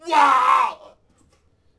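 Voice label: Warrior Death Vox; Civ4 warlords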